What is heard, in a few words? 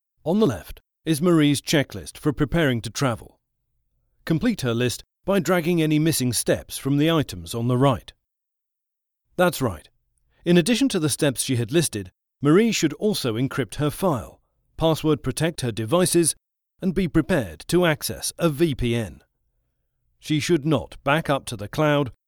English British e-learning